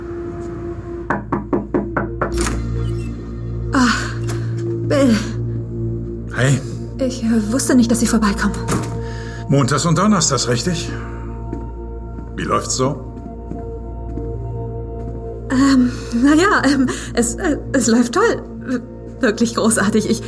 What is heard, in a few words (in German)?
Synchron; The Loudest Voice; Laurie und Bill